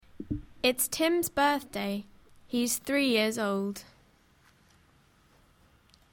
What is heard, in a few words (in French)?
anglais dial